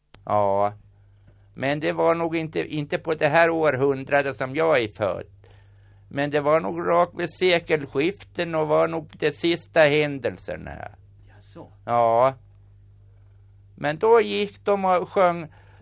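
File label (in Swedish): Gräsö Uppland, dialekter; Svenska sagor; Folkminnen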